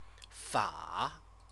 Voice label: "fa", Chinese